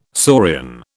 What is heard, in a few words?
"saurian", British